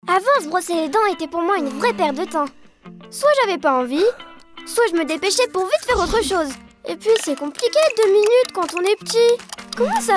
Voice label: voix off enfant; elgydium

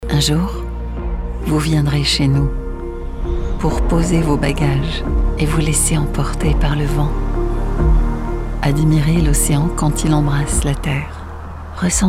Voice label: Voix off femme pub tonalité douce; Voix off femme pub tonalité douce : une voix caressante et sensible, pensée pour sublim; riviera; Studio professionnel

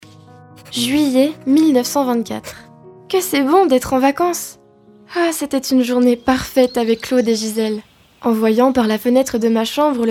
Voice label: podcast voix off enfant, french teen voice over

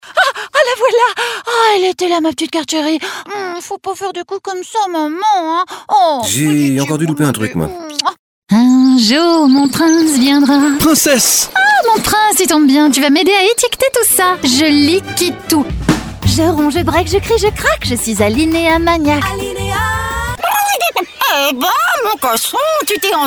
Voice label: pub radio jouée, voix off; Showreel

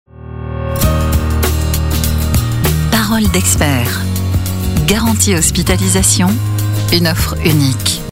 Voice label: habillage parole expert radio interne

